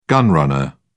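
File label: "gun runner", British English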